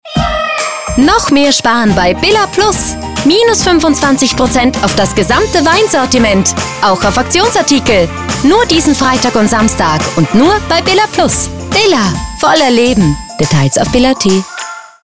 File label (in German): HF Spot; BILLA PLUS